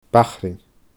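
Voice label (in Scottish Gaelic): "Bachrain", Scottish Gaelic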